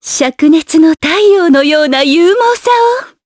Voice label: Skill, jp; heroes; Yuria; kingsraid